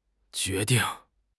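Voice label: "jue2 ding4", Chinese; HSK3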